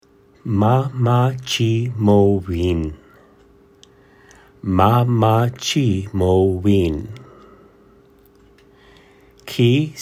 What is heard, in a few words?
Mamachimowin pronunciation